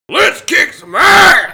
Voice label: Tough Guy - Lets Kick Some Ass; blackjack; Intro Voices

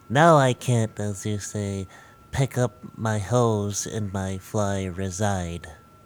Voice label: Nerd blackjack

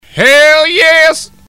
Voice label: Hell Yes, Redneck Voice; BlackJack

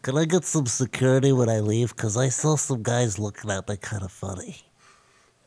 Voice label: Nerd Win; blackjack

